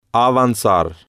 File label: "avanzar", spanish